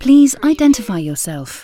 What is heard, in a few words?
UK female please identify yourself; lynne publishing